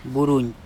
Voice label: "buruin" mountain, andamanese